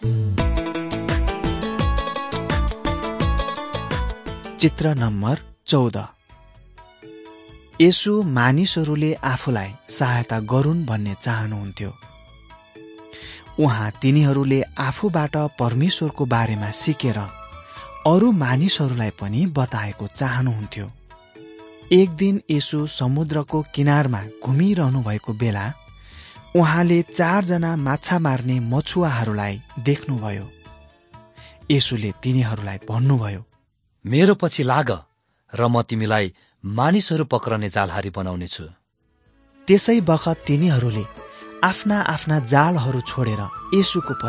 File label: jesus teacher and healer nepali